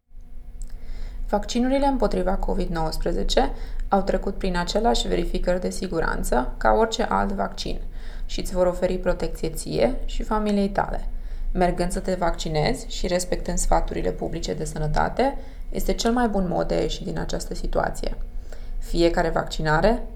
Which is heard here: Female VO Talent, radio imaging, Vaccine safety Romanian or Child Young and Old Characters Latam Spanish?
Vaccine safety Romanian